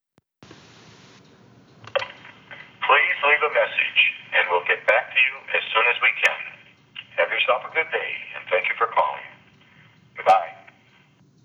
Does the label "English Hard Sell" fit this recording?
No